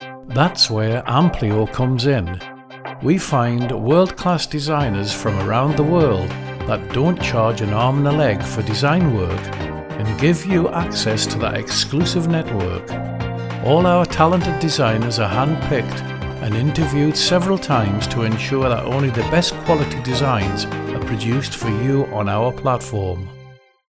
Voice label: WITH MUSIC; Amplio

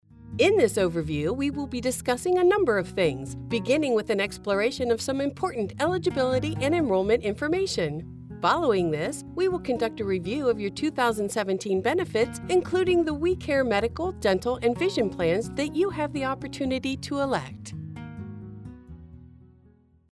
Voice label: Plumbing with Music; We Care Plumbing

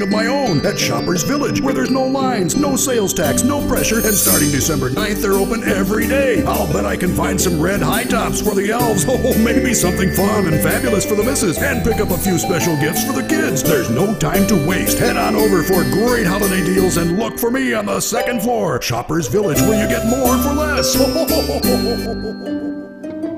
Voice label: SANTA CLAUS TV; SHOPPERSVILLAGE

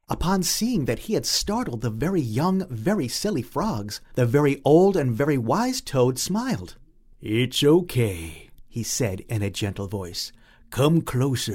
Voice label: Childrens Audiobook

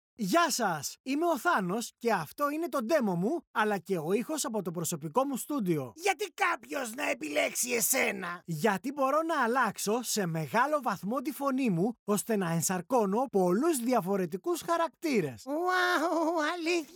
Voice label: Voice Over Characters Dry

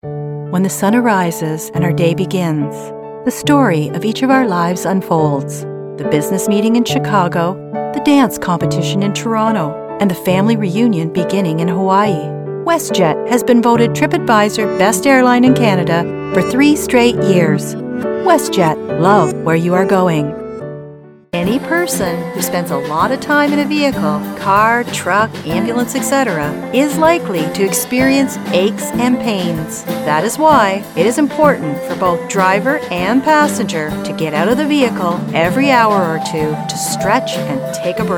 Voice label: Corporate Narration

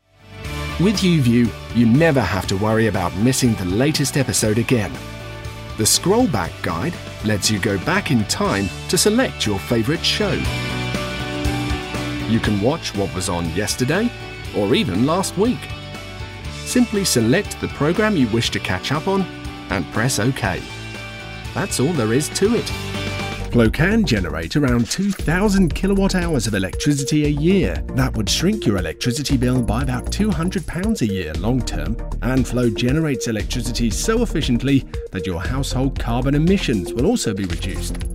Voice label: Explainer Video

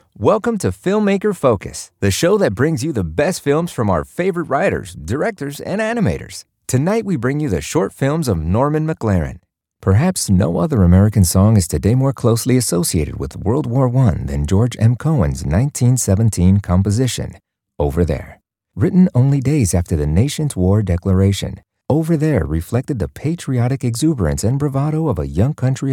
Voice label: Documentary English

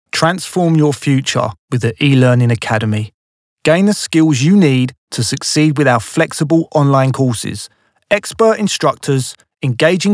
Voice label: e-learning

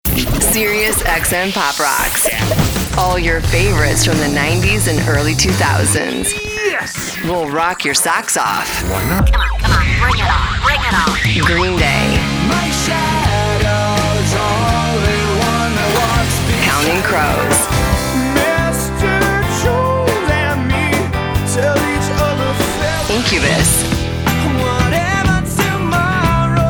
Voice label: Radio Imaging

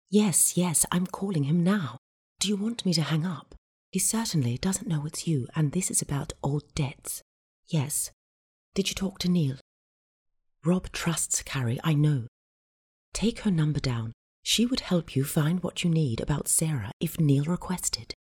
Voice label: Script Reading

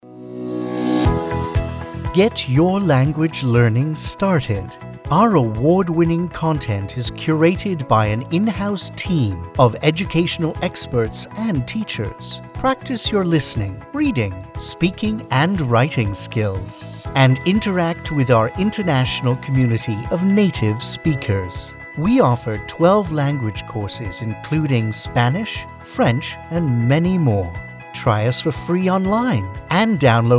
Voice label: Internet Explainer

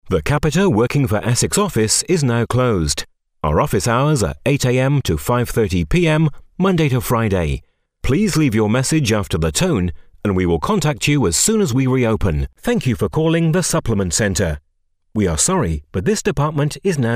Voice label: telephone on hold

